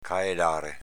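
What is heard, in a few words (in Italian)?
"caelàre" Veneto dialect; v. lamentarsi, piagnucolare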